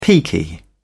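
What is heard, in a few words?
"peaky", British English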